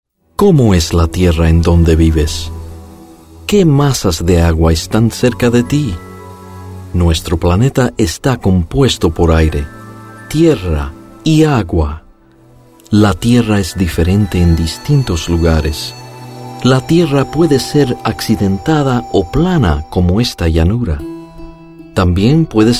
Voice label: Spanish Narration